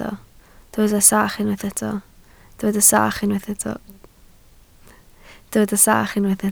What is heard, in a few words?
"sach", Welsh